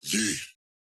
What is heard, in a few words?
Vox "yeah"